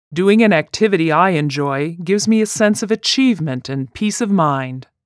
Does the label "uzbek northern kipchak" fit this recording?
No